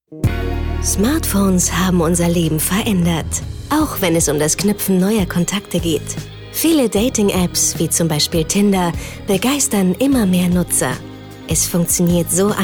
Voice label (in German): Sinnlich; Fremdtake